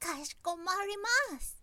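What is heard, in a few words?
"kashikomarimasu", woman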